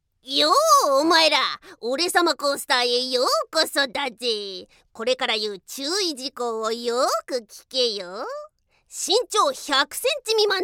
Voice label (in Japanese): 人外キャラナレ・注意事項案内